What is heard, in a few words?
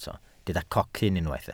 "cocyn", welsh